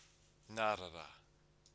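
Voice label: "ngarara", Māori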